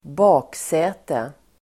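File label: "baksäte", Swedish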